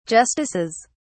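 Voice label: "justices", British English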